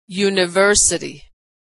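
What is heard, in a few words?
"university", American English; vowel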